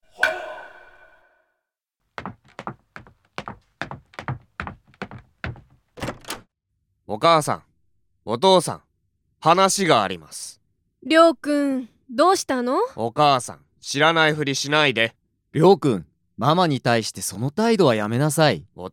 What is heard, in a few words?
dialog; JapanesePod101; Beginner Season 1